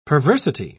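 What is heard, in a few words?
"perversity", British English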